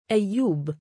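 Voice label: male "2ayyuub", Arabic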